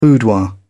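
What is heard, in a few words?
"boudoir", British English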